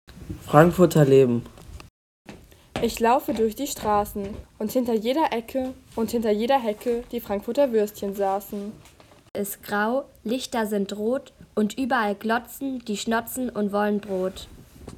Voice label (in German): Audio Gedicht